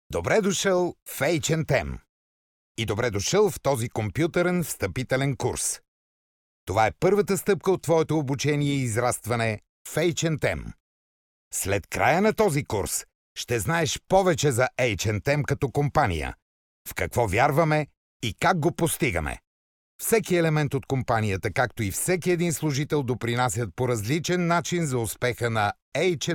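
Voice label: bulgarian male e-learning; voice demos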